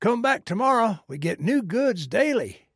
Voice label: trader male like sale declined

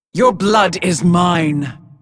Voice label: female demon hunter yes attack

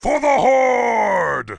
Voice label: grunt warcry en; 苦工（魔兽争霸3）; 兽人

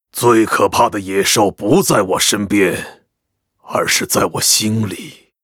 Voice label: Ogre beast master pissed, zh

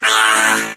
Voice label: hurt

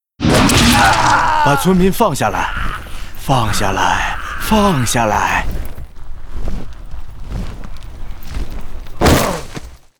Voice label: pissed, zh; 龙鹰骑士（魔兽争霸3）; 人类

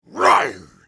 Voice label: attack, zh; 雷恩加尔（英雄联盟）

